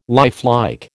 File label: "lifelike", American